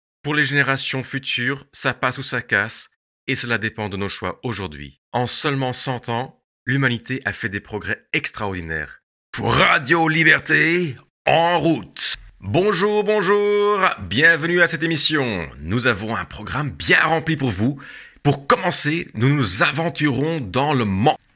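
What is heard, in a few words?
Belgian French, Commercial; voice demos; GoLocalise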